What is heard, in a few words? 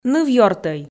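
"нывъёртӧй", Komi, female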